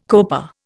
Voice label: "coper", Indian

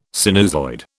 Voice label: "sinusoid", British

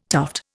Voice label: "tuft", Indian

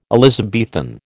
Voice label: "Elizabethan", American

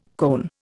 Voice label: "cone", Indian

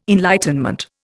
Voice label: "enlightenment", Indian

